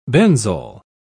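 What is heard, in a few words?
"benzol", English, British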